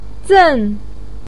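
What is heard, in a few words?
"zen4" Chinese syllable, pinyin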